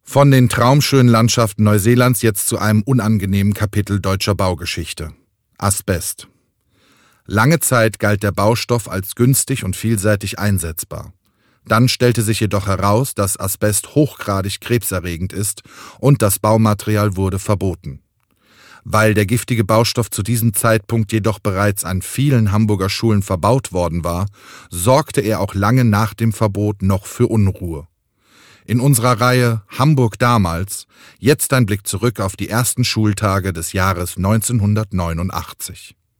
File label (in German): Sprecherdemo nachrichten Stil; ARD